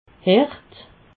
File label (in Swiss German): "hert", alsace